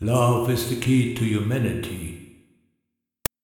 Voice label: vocoder voice; XILS-Lab; Vocoder 5000